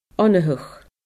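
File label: "onfhadh", Scottish Gaelic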